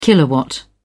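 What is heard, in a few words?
"kilowatt", British English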